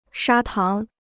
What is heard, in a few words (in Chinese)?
"砂糖", Chinese